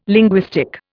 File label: "linguistic", Indian